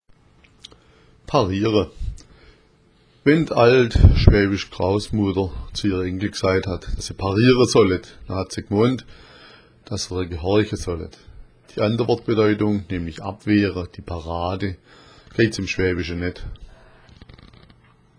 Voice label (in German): parira, schwaebisch